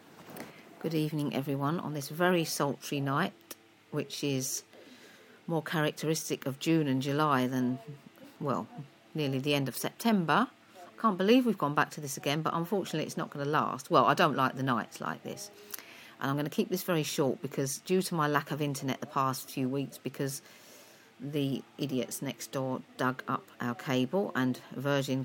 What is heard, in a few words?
trial announcements; virgin trains; vipodcasting